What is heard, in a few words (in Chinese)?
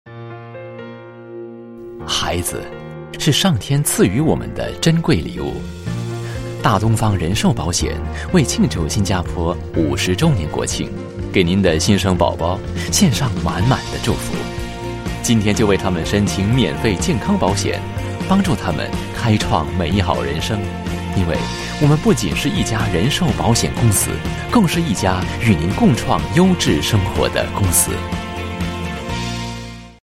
Mandarin; GE